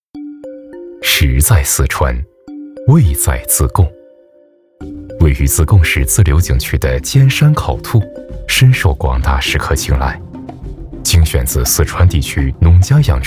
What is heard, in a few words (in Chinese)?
舌尖大气; 尖山烤兔